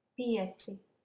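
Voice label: "pieci", Latvian, female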